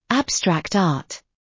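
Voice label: "abstract art" British English; The arts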